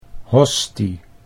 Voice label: "hostie", Dutch